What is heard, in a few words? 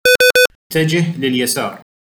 Move Left with effects Arabic